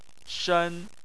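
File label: "shen" tone 1, Chinese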